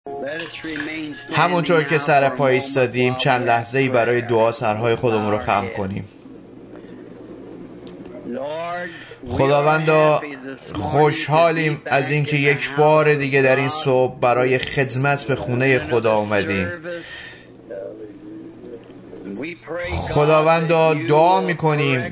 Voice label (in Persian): Farsi Voice Over; Trying To Do God a Service Without It Being God's Will